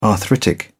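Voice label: "arthritic", English, British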